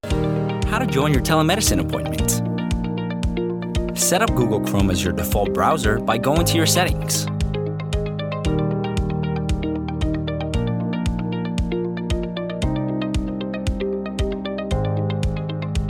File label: english e-learning